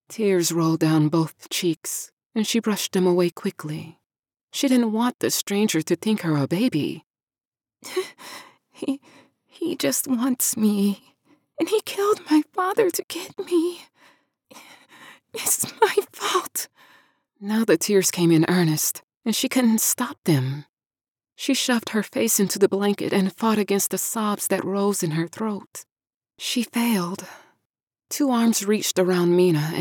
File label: Old F Young F 3rd person narration Fantasy